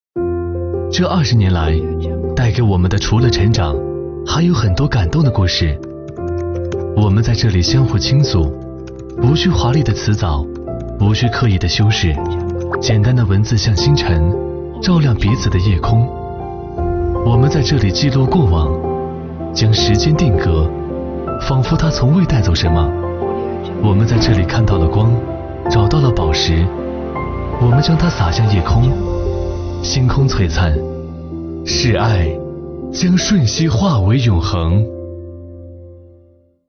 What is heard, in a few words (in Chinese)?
男 旁白 走心视频